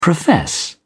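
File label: "profess", English, British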